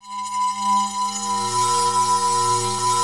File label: TEXTURE VO; Rarefaction - Poke in The Ear With A Sharp Stick